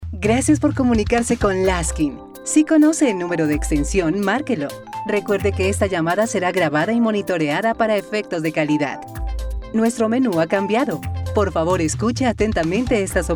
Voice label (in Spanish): IVR; Laskin